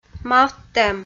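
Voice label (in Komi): "мавттӧм", Komi, female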